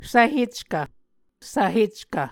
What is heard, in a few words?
"svheckv", Muscogee